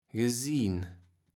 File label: "gesien", Kaevels Platt